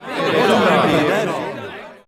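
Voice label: elves murmur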